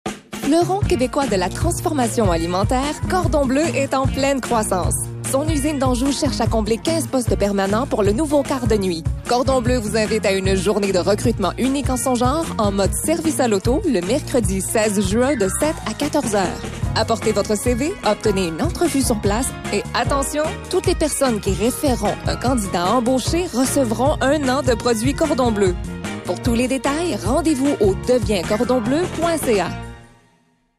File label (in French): publicite; cordon bleu